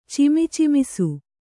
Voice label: "ಚಿಮಿಚಿಮಿಸು", Kannada

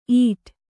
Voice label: "ಈಟ್", Kannada

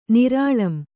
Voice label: "ನಿರಾಳಂ", Kannada

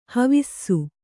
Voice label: "ಹಾವುಸೆ", kannada

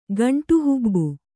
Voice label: "ಗಂಟುಹುಬ್ಬು", kannada

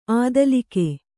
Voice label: "ಅಧೋಲೋಕ", kannada

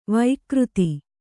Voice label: "ವಕ್ರಿತ", Kannada

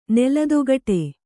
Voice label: "ನೆಲದೊಗಟೆ", kannada

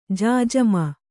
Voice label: "ಜಾಜಮು", kannada

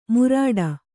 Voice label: "ಮುರಾಡ", Kannada

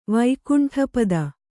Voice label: "ವೈಕುಂಠಪತಿ", Kannada

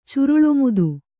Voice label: "ಚುರುಳೊಮುದು", Kannada

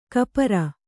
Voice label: "ಕಪರ", kannada

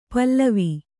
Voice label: "ಪೇಲವ", Kannada

